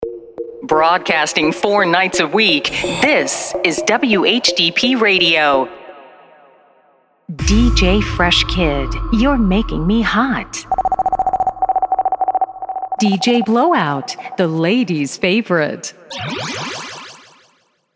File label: American female voice, DJ Drops; dj drops by various voices